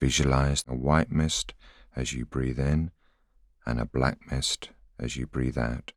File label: voiceover